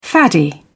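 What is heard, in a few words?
"faddy", British English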